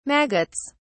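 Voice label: "maggots", British English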